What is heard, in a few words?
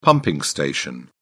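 "pumping station", British English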